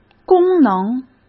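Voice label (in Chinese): "功能", Mandarin Chinese; hsk; chinese-flashcards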